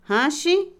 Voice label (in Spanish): Otomí, mujer; asar, freír; INALI